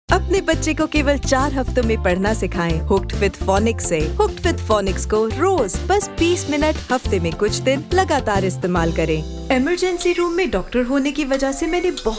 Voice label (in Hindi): Commercial Hindi